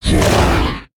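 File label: info, Japanese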